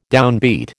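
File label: "downbeat", American English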